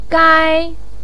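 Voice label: "gai" first tone, chinese, voice pinyin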